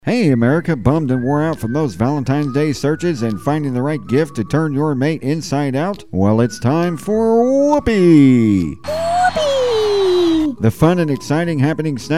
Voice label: whoopie commercial